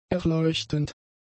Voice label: "erleuchtend", German; adjectives